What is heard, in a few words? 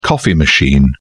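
"coffee machine", English, British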